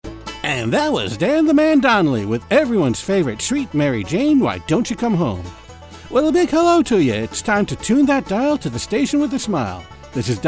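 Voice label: Commercial; Meadow Gold Farm Report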